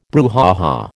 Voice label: "brouhaha", American